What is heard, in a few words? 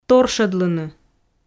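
"торшӧдлыны", Komi, female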